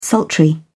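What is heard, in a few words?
"sultry", British English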